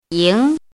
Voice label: "ying2", pinyin